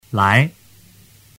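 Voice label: "lai", Mandarin Chinese; tu moi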